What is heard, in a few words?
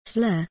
word slur